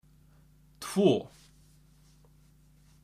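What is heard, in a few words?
"tvö", Icelandic; tolur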